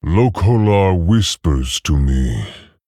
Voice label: Male Tauren Primalist In Game Emote Response

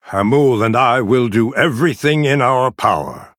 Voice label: Male Night Elf Intro; Malfurion; Mission7Intro

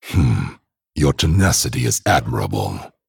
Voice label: Male Dragon Well Played

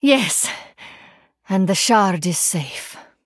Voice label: Female Draenei; Kurtrus Mission6 Victory

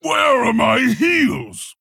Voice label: Male Orc Play

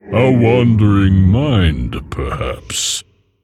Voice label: Male Mogu Oops

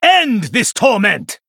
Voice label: Male Blood Elf Attack

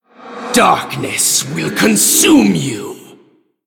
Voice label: Male Human Threaten; Anduin Deathknight

